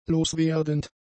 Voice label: "loswerdend", German; adjectives